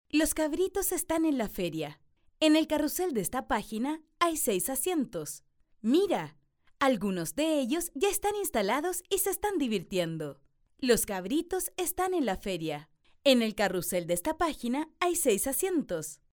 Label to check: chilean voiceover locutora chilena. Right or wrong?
Right